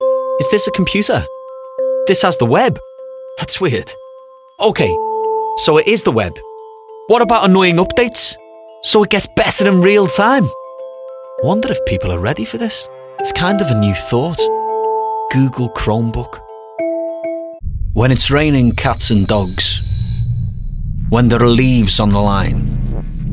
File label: commercial reel; Natural Accent: Liverpool